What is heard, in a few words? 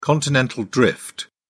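"continental drift", British English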